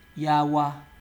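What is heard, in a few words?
"yawa", Nigerian Pidgin, male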